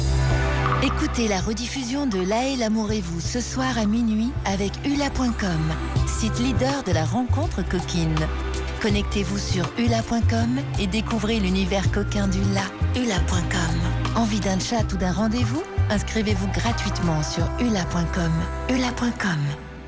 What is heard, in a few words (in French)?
douce sensuelle